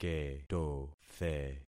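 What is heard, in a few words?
"ketothe", concatenated syllables; Affixing experiment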